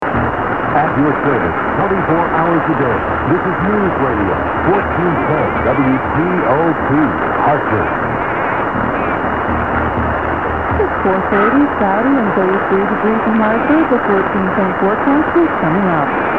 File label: 1410, mw dxing; wpop; hartford